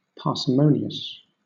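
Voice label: "parsimonious", British English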